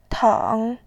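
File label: "tang", Mandarin Chinese, third tone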